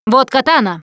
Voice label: melee weapons, russian, Survivor Voice Producer